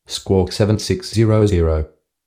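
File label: squawk 7600, Australian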